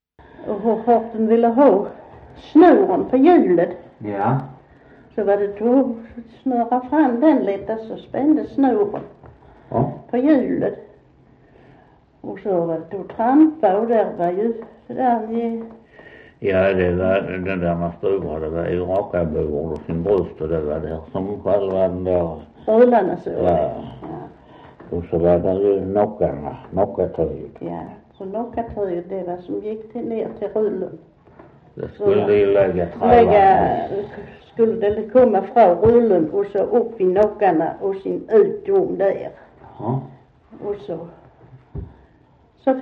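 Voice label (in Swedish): Västra Torup Skåne, dialekter; Folkminnen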